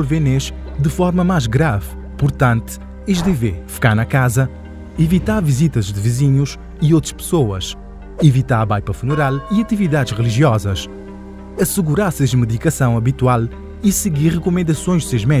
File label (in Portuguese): Spot Cuidados com Idosos Radio